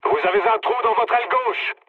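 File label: French left wing mood low; War Thunder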